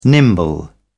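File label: "nimble", British English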